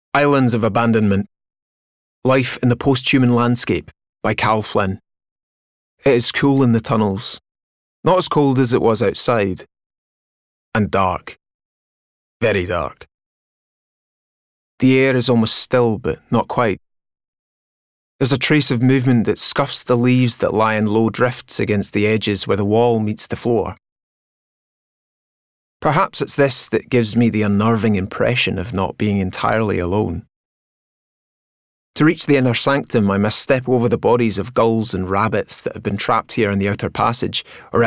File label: Audiobook; Islands of Abandonment